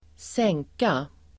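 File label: "sänka", Swedish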